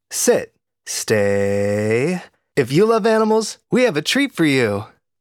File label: promo; clear the shelters; warm upbeat conversational